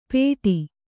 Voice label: "ಪೇಢಿ", Kannada

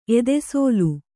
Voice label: "ಎದೆಸೋಲು", Kannada; edesōlu

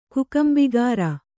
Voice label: "ಕುಕಂಬಿಗಾರ", Kannada

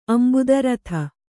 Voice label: "ಅಂಬುದರಥ", Kannada

